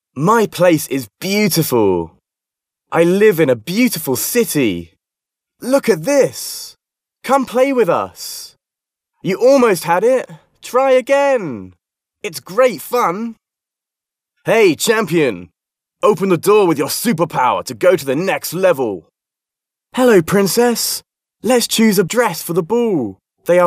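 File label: British English male children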